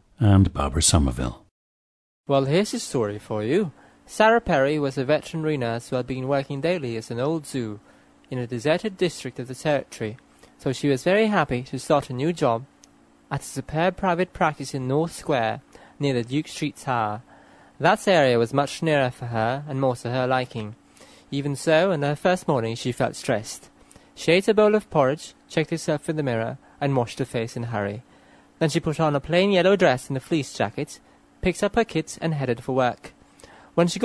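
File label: philippines, dialects of English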